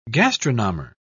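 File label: "gastronomer", British English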